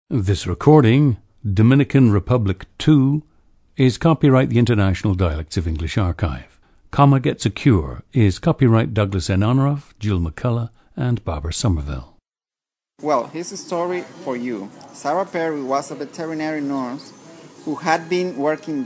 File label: Dominican Republic, International Dialects of English; Dominican Republic 2; a 45-year-old man